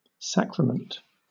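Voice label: "sacrament", British English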